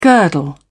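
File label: "girdle", English, British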